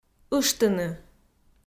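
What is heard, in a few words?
"ыштыны", Komi, female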